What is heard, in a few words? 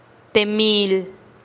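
"te mil", Teotitlán del Valle Zapotec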